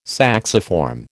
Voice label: "sacciform", American

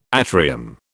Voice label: "atrium", British

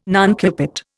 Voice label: "nuncupate", Indian

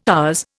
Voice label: "ours", Indian

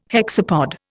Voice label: "hexapod", Indian

